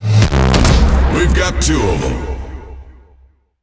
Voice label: Speech Double Kill, EN; World Of Warships